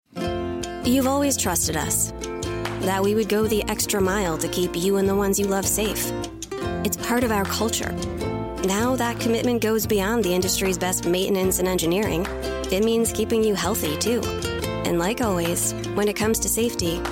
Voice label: commercial